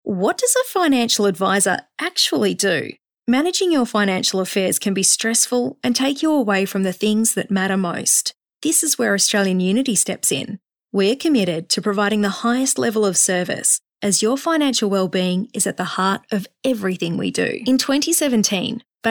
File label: Natural